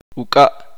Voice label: "cuerno", Uspanteko